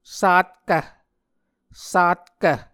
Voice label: "satkv", Muscogee